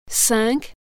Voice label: number five french